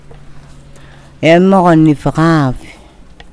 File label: emohoni nifahaf, good evening, Tobian; Variant spelling emoh nifahafi; Tobian Lexicon